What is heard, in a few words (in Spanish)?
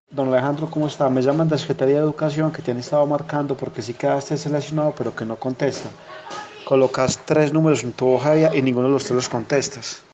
voz estafador